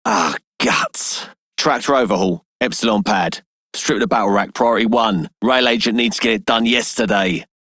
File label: Male Dispatcher, en; Dialog; Warframe; Solaris Venus